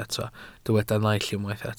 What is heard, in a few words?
"naill", welsh